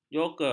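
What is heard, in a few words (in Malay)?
"joker", Malay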